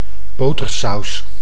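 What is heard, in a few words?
"botersaus", Dutch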